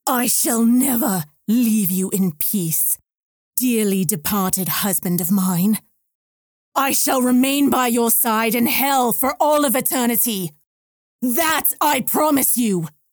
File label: Vengeful Witch